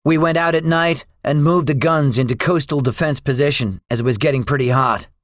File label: debriefing, english; War Thunder